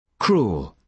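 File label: "cruel", British English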